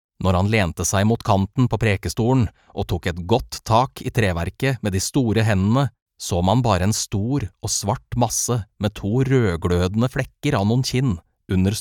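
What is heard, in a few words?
Norwegian Audiobook Male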